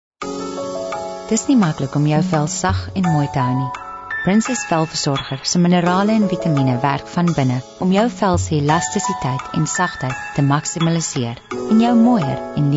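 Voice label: Afrikaans Soft Sell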